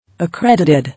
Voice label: "Accredited", English

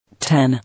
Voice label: "Tan", English

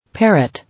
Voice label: "Parrot", English

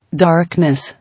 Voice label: "Darkness", English

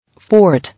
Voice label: "Fort", English

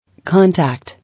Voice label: "Contact", English

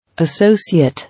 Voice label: "Associate", English

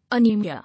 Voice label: "Anaemia", English

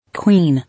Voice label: "Queen", English

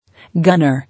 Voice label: "Gunner", English

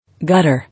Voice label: "Gutter", English

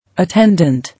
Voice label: "Attendant", English

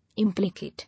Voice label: "Implicate", English

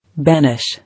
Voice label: "Banish", English

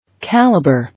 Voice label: "Calibre", English

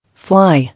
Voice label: "Fly", English

